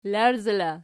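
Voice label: "larzala" nominative, Archi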